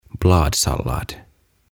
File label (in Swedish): "Bladsallad" "Lehtisalaatti"